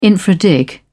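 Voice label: "infra dig", British English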